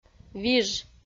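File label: "виж", Komi, female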